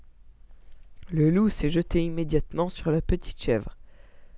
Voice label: L1 French Speaking French